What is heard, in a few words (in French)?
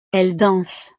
"elles dansent", frances